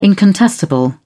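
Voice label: "incontestable", British English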